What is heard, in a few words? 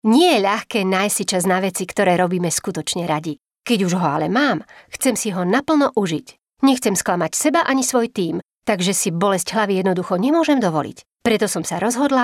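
Female Slovak commercial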